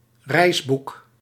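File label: "reisboek", Dutch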